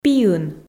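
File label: "пиын", Komi, female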